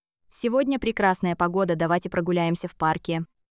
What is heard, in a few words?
russian; supertonic cn